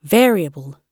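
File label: "variable", British English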